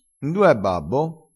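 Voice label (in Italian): "sgroma", vocabolario dialettale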